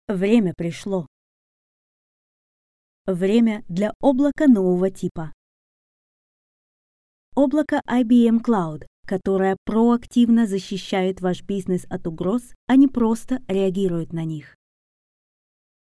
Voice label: Russian